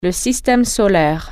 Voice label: "solar system", French

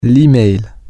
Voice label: "the email", French

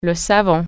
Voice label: "soap", French

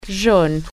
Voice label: "yellow", French

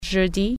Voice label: "thursday", French

